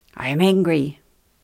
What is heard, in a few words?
angry; Korero books